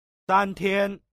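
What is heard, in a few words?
"san tian" Chinese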